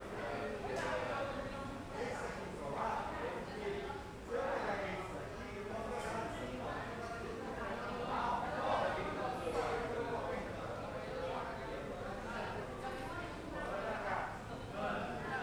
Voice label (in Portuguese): Escola professor dando aula longe e adolescentes conversando; multidao